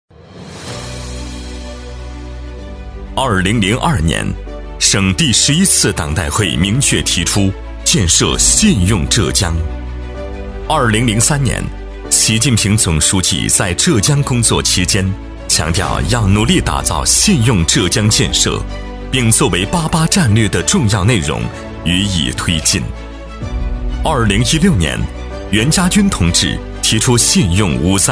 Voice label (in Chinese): 男 观摩会暖场视频; 厚重磁性 大气浑厚